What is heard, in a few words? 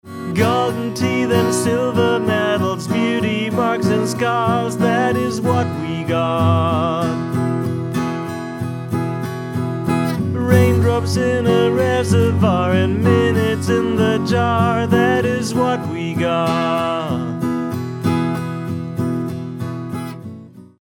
teeth y, grossmembranmikro fuer weichen bariton